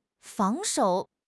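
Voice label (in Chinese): "防守", Mandarin Chinese; HSK6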